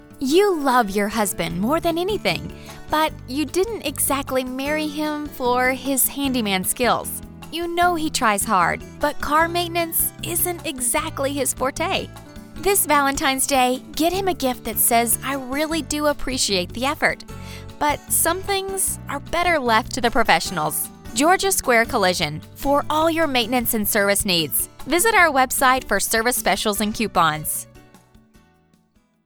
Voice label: english american tv shows

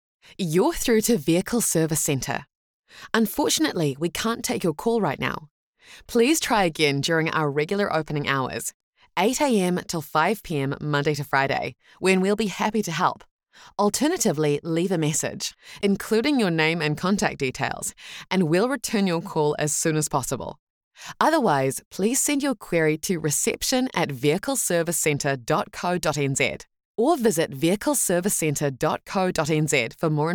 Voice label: english new zealand ivr; voice actor

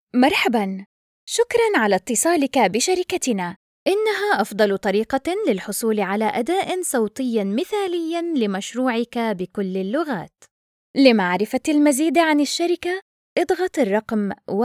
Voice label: arabic commercial

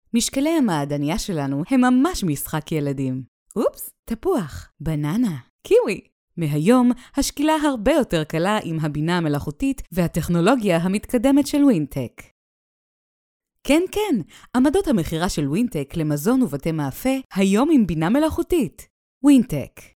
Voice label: hebrew promos

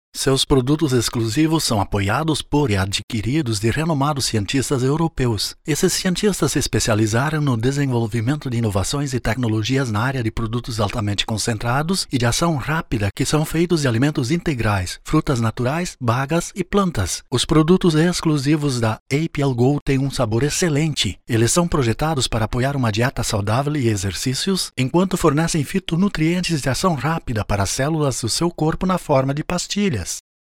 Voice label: portuguese brazilian radio imaging; Voice over talent, with a warm, clear and friendly voice

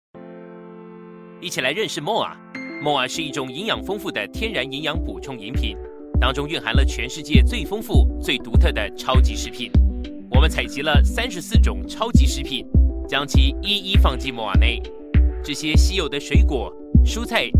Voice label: chinese mandarin commercial

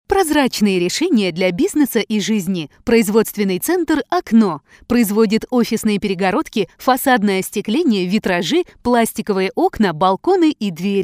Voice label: russian promos

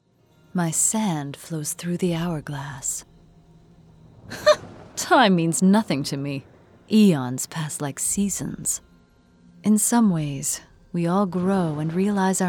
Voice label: english american video games; Synchronsprecherin; Heimstudio in Chattanooga

